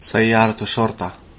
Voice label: police car in arabic; transportation